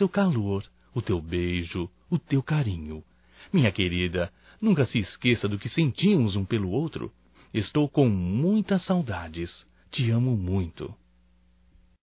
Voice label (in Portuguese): AMOR DISTANTE(M); Telemensagens 2017; Declaração de amor